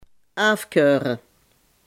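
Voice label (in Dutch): "afkeuren" Limburgish dialect word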